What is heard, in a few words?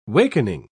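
"wakening", British English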